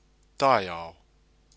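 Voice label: "taiao", Maori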